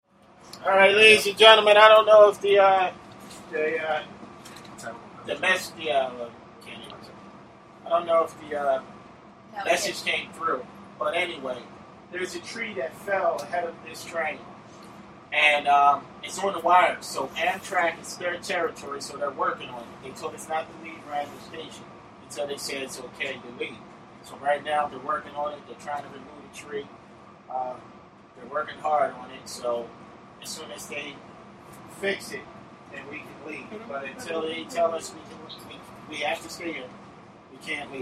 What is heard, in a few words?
septa announcement